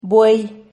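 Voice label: "buey", Spanish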